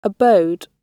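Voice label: "abode", British English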